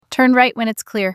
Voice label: Female Turn Right When Clear